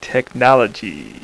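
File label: "Tech", male; VOICE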